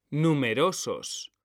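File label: "numerosos", Spanish; mekanta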